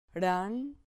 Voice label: "ran", Spanish syllable

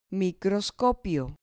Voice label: "microscopio", Spanish

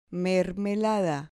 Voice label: "mermelada", Spanish

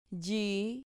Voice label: "lli", Spanish syllable

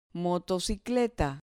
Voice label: "motocicleta", Spanish

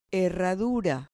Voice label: "herradura", Spanish

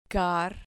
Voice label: "car", Spanish syllable

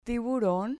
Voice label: "tiburon", Spanish

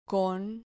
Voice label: "con", Spanish syllable

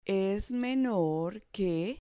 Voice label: "menorque" Spanish

